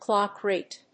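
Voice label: "clock rate", English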